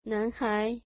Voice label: boy, mandarin